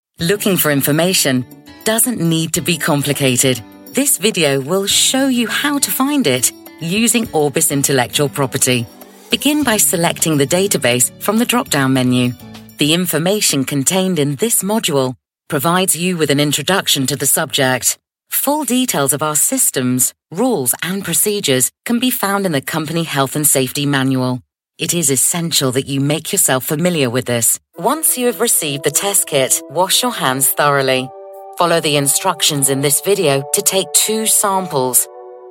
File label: elearning, Cool Natural Husky Voice